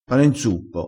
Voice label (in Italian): "pane nzuppo", crespinese